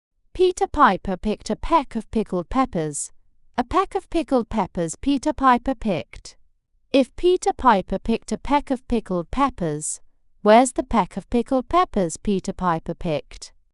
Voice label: fem brit; Peter Piper

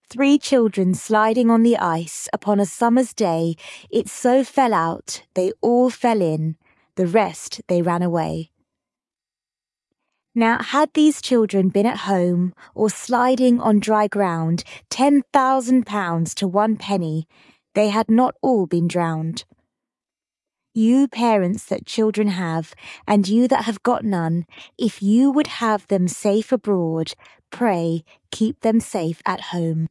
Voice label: fem brit; Three Children Sliding on the Ice

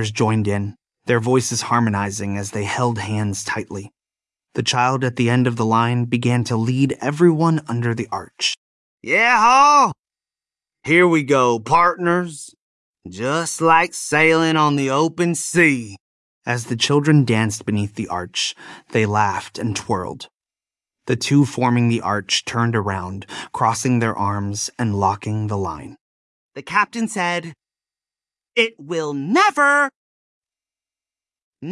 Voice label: The Big Ship Sails, male US story

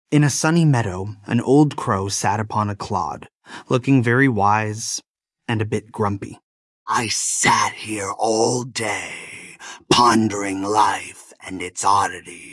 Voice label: male us story; There Was an Old Crow

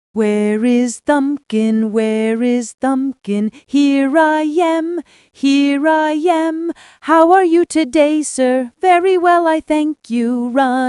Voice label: fem us; Where is Thumbkin

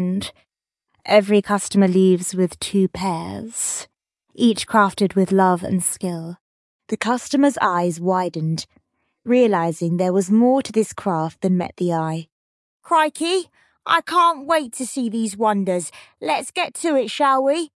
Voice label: A Shoemaker Makes Shoes fem brit story

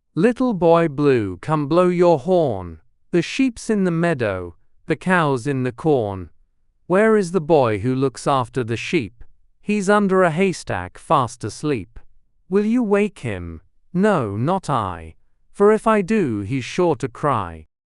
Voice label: male brit; Little Boy Blue

